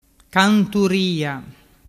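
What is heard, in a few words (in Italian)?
"canturia", dialect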